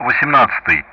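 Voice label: Speech Russian; DCS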